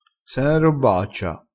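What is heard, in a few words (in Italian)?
"robbaccia", vocabolario dialettale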